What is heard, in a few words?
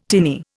"tinny", Indian